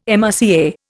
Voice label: "MRCA", Indian